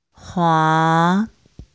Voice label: Chinese pronunciation f h